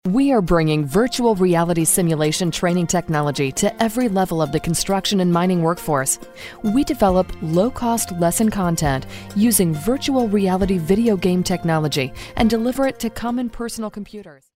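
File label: English US, female, corporate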